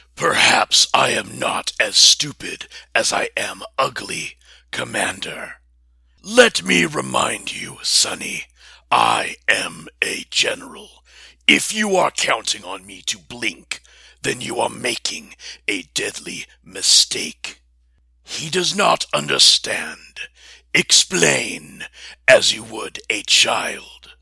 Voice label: Impression Alien; Alien Captain from GalaxyQuest